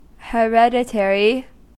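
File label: "hereditary", US English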